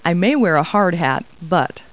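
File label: woman; people; Talking Clip Words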